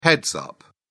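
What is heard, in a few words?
"heads-up", British English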